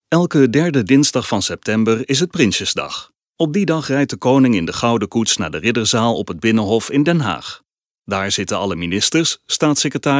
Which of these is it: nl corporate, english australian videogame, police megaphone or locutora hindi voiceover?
nl corporate